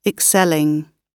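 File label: "excelling", British English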